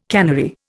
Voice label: "cannery", Indian

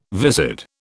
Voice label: "viscid", British

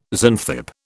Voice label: "Xanthippe", British

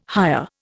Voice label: "hire", Indian

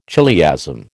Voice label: "chiliasm", American

